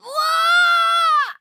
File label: hit cookie, en; cookie run